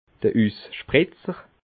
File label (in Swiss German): "usspritzer", alsace